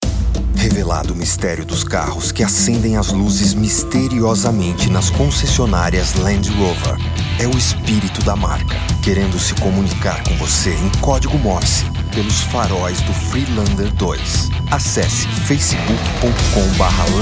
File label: SPOT; LAND ROVER REVELACAO 30